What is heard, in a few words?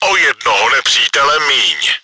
enemy killed, cz; O jednoho nepřítele miň.; На одного врага меньше!; Czech; World of Tanks